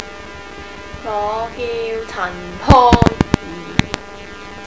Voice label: chinese